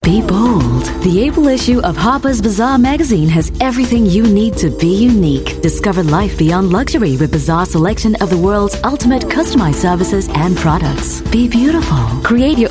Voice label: English Singaporean Female; Harpers Bazaar